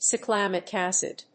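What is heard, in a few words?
"Cyclamic acid", English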